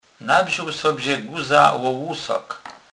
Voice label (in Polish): "lusak", kurpiow